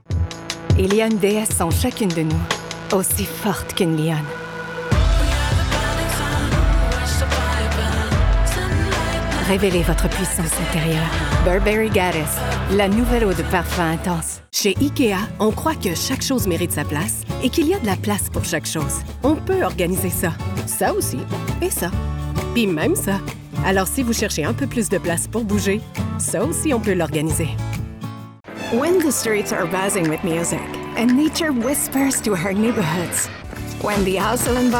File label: Commercial